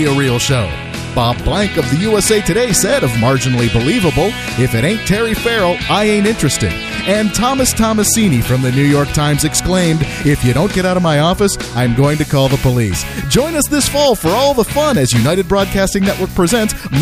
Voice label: spot sitcom